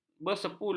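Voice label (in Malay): "bersepuluh", Malay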